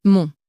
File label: "mon", French